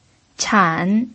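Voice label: "chan", pinyin, third tone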